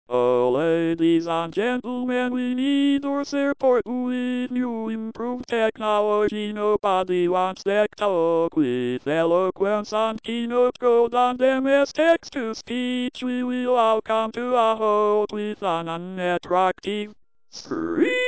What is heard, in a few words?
dectalk